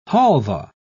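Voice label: "halvah", British English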